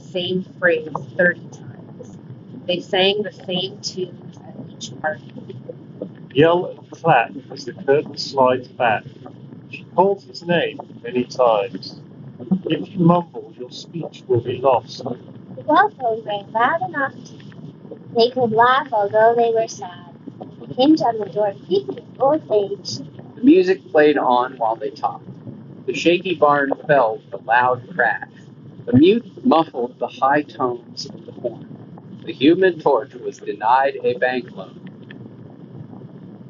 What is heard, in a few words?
Shokz Open Fit Wind microphone sample